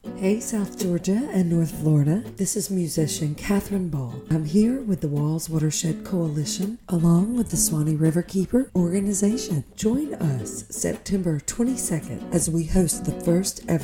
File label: Radio Ad; WWALS; Public Service Announcement; WWALS Watershed Coalition (WWALS) is Suwannee RIVERKEEPER®